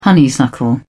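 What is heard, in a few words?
"honeysuckle", British English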